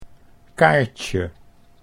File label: "kaartje", Dutch